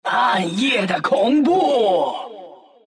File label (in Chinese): attack, zh; Dota 2